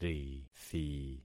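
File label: "rithi", concatenated syllables; Affixing experiment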